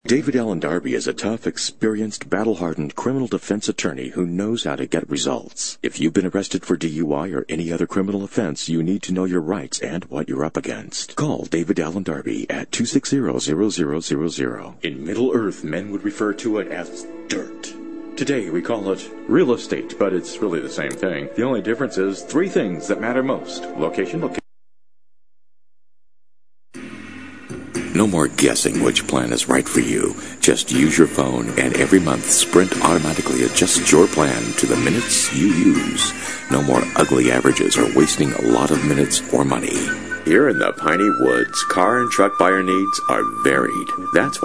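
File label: english american male voice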